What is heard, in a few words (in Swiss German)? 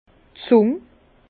"zung", Bas Rhin; langue; vin